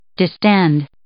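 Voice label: "distend", English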